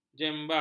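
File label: "jemba", Malay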